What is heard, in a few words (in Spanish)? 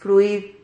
"fruid", Silabas